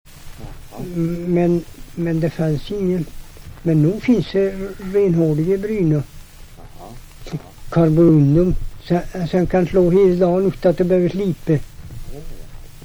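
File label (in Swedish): Hede Härjedalen, dialekter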